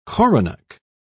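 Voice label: "coronach", British English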